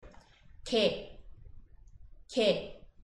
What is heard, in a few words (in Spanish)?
perro, coreano